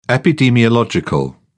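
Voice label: "epidemiological", British English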